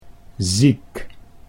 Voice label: "ziek", Dutch